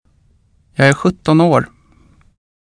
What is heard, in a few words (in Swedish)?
"sjutt", swedish